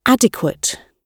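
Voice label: "adequate", British English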